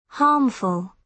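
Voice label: "harmful", British English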